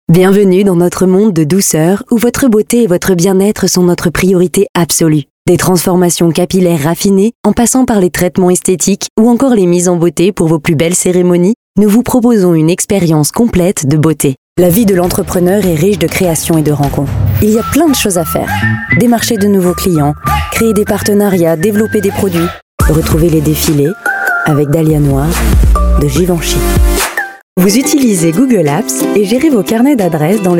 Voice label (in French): FR; French